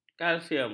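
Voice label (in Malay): "kalsium", Malay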